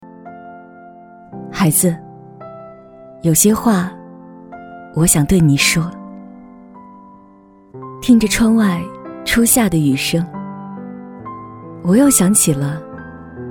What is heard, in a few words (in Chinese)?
女 旁白 温暖磁性; 母亲